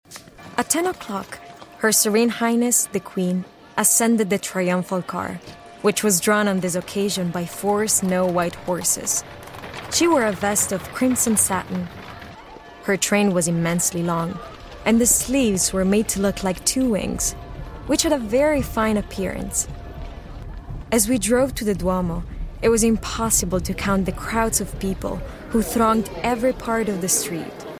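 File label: Italian, female, English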